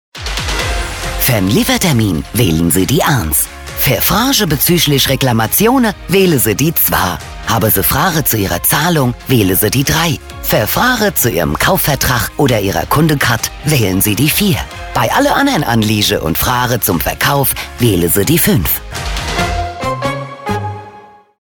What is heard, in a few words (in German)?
Rolle Hessisch Telefon